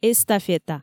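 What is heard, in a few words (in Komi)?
"эстафета", Komi, female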